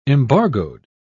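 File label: "embargoed", British English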